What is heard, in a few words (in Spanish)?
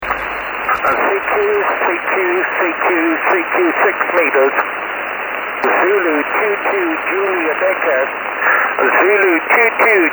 llamando CQ desde Zimbabwe